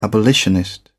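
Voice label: "abolitionist", British English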